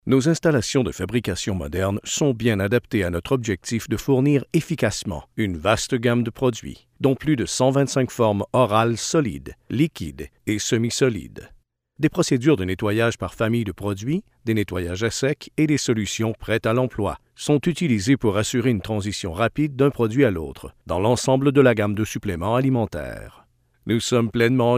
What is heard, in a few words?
french canadian corporate videos